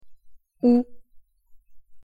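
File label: "у" Russian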